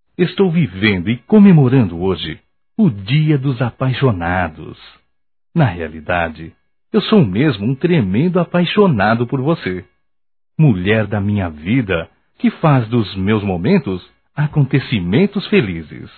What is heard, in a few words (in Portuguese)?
Dia dos Namorados Masculino